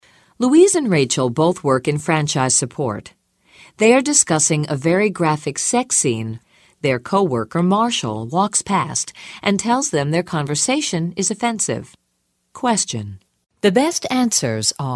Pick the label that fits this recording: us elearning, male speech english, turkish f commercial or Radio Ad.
us elearning